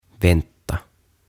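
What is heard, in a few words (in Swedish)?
"vänta", Swedish; Odottaa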